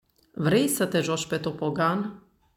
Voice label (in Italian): Rumeno